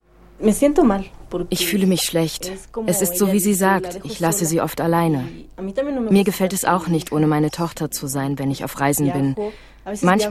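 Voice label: voiceover tv doku; rock im ring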